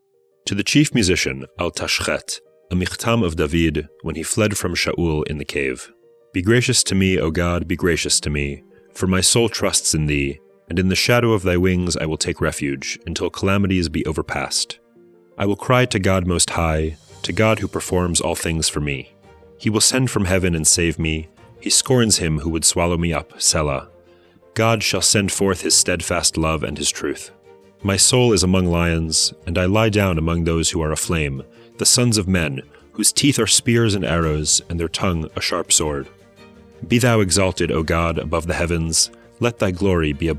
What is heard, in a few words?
poetry and prayer psalm english